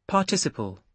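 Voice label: "participle", British English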